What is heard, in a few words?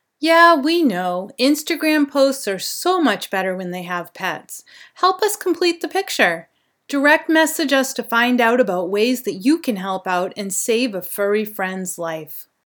Short Social Media Ad; Voiceover Commercial Social Media; Professional voice acting